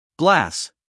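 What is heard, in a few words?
"glass", American English, male; everyone-can-use-english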